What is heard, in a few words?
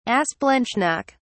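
"asplanchnic", English, British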